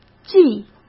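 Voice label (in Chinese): "记", Mandarin Chinese; chinese-flashcards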